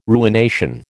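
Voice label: "ruination", American English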